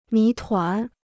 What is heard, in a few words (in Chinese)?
"谜团", Chinese